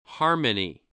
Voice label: "harmony", voice

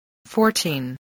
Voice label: "fourteen", English voice, spelling

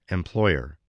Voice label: "employer", spelling

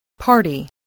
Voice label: "party", English, voice

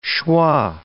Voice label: "schwa", English

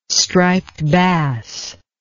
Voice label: "striped bass", English

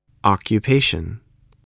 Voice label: "occupation", voice, spelling

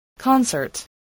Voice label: "concert", english

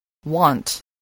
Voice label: "want", spelling

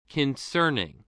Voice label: "concerning", english

